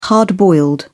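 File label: "hard-boiled", British English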